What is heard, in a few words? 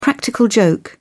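"practical joke", English, British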